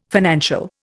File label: "financial", Indian